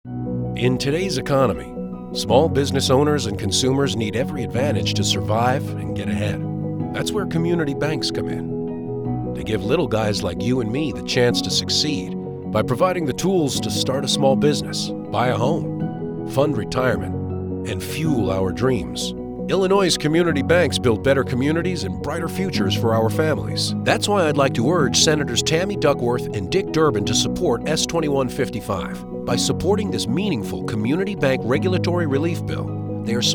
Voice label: radio ad; icba; illinois